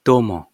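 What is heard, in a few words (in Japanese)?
"どうも" Japanese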